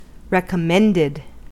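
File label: "recommended", American English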